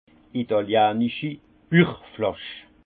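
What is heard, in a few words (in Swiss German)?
"italianichi", Haut Rhin; vin; fiasque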